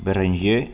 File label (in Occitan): "berengie", Occitan